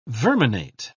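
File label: "verminate", British English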